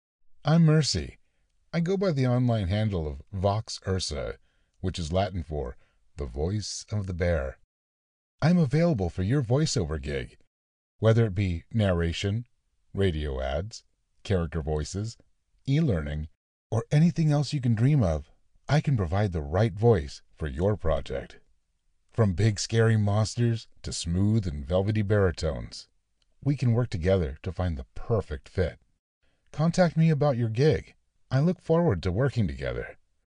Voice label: Introduction Ad; FIVERR INTRODUCTION